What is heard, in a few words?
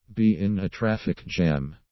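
"be in a traffic jam", English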